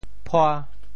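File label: "phua5", Teochew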